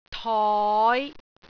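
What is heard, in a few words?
"toi5", Cantonese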